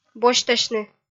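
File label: "босьтасьны", Komi, female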